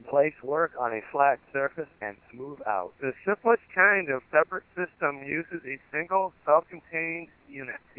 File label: Codan digital voice